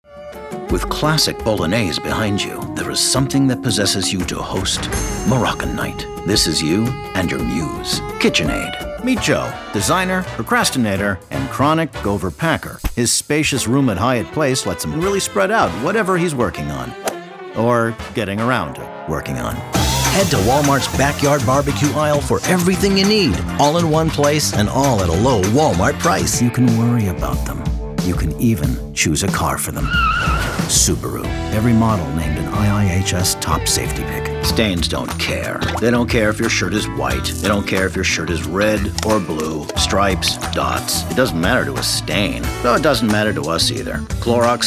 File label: Commercial